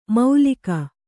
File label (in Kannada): "ಮೌಲಿಕ", Kannada